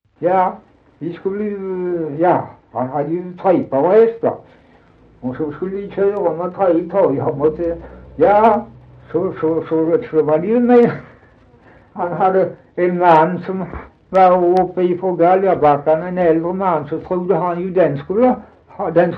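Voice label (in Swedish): Dalby Skåne, dialekter; Folkminnen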